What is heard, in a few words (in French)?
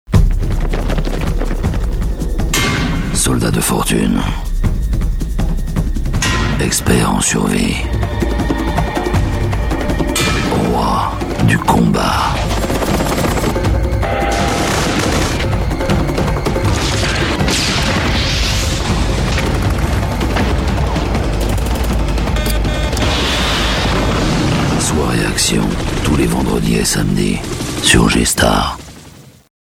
promo; G-STAR